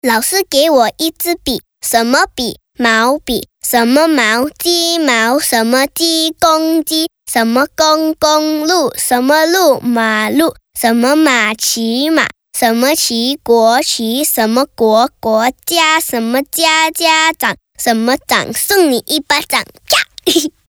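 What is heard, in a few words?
Mandarin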